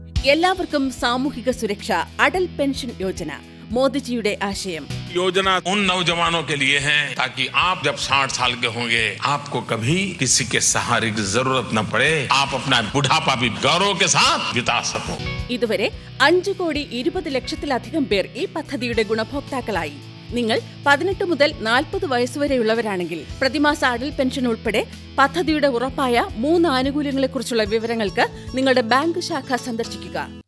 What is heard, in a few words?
Atal Pension Yojna Malayalam